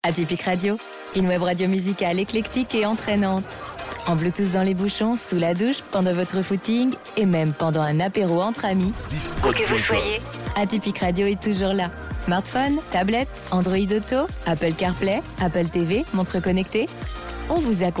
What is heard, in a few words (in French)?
Autopromo application; Atypique Radio